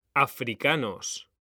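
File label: "africanos", Spanish, male; mekanta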